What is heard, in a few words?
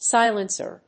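"silencer", English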